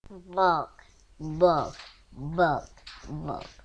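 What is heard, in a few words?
"bog", spoken word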